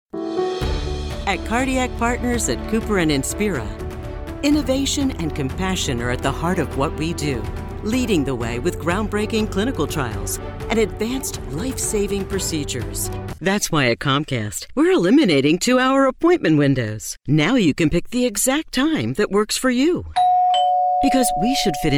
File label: Commercial